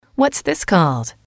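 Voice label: what is this called, female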